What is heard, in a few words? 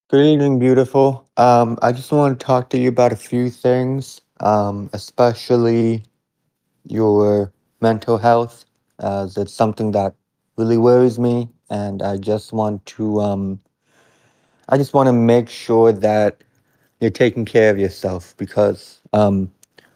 voice message